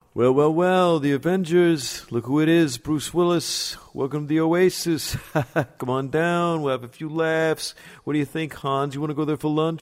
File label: Character; Bruce Willis